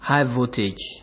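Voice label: "highvoltage", Nigerian Pidgin, male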